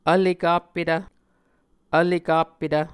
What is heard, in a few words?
"vlekappetv", Muscogee